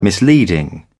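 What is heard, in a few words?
"misleading", British English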